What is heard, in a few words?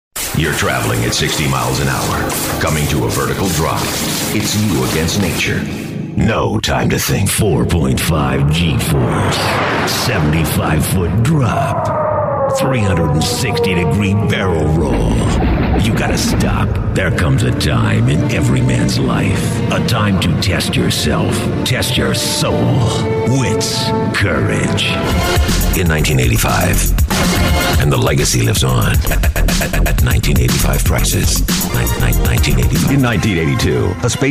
deep american, superhero mix